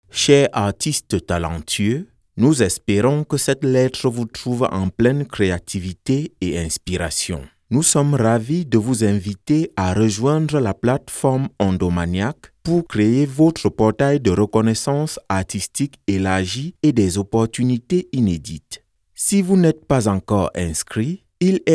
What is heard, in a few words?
soft